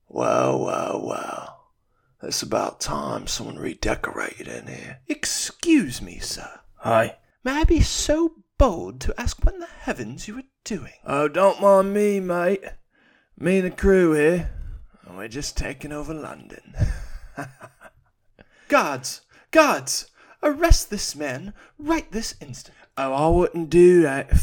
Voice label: VO british cockney

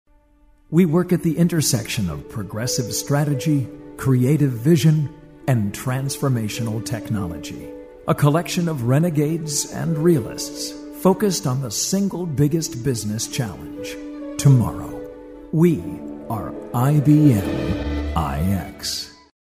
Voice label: Aspirational Branding

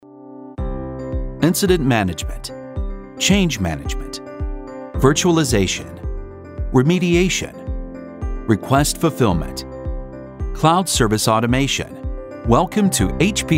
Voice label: Narration Corporate

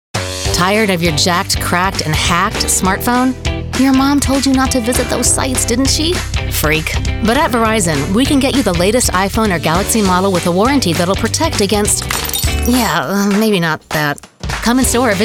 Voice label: Commercial

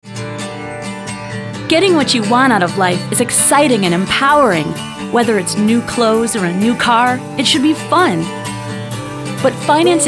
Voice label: Narration; Toyota

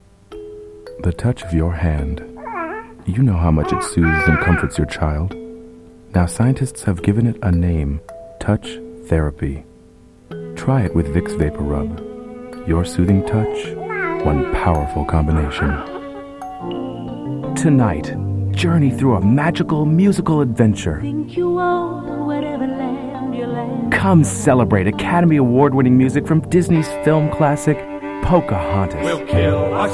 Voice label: Voice Over Commercial

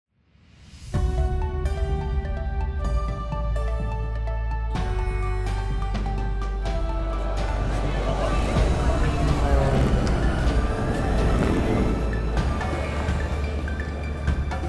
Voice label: Podcast Trailer, VO and Score; AFAR

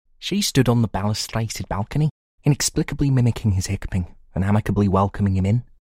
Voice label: UK Liverpool Younger

